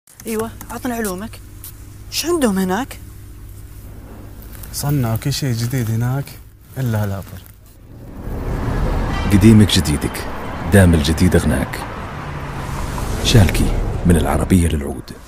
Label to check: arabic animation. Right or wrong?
Right